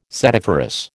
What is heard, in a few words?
"setiferous", American